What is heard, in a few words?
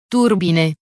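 "turbine", Italian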